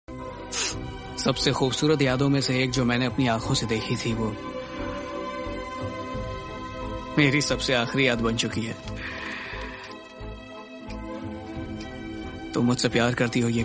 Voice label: lover dialogues call notification tone; nokia ringtones